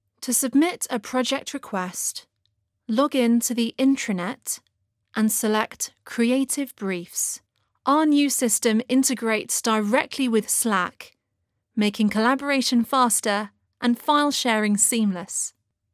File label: E-Learning